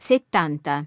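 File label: "seventy", English; iOS 4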